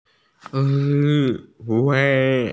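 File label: "frog", Mandarin; qing1wa1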